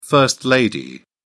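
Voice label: "first-lady", British English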